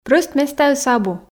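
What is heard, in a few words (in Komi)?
прӧст местаыс абу, Komi, female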